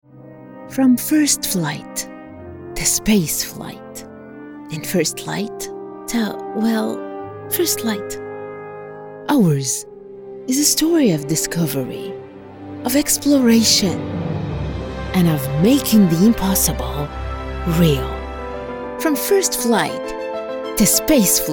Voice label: commercial english, english female voices arabic accent